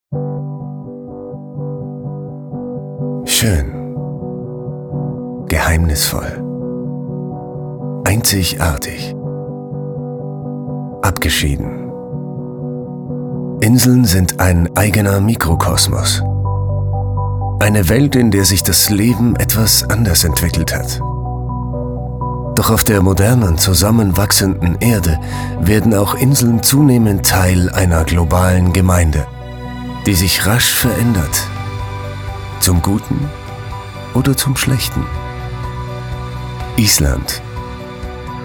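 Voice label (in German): doku, deutsch männer; sprecher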